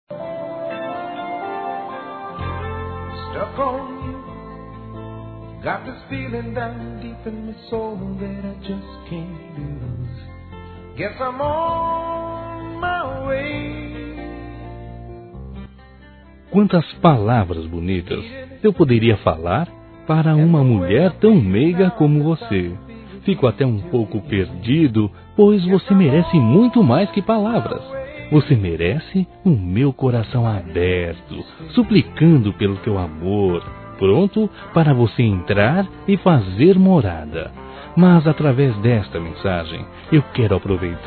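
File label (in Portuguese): AGRADECIMENTO ROMANTICO, masculino; Telemensagens; Convites e Agradecimentos; Telemensagens 2017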